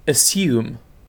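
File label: "assume", British English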